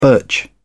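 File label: "birch", British English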